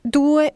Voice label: Italian count 2; samsung YP-U5; Audio User Interface